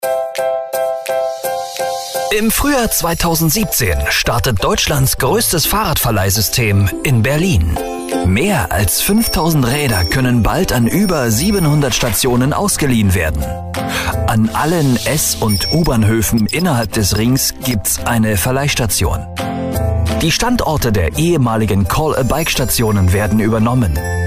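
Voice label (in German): Kommentar Lebendig; Fremdtake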